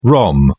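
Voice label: "rom", English, British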